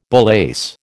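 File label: "bullace", American English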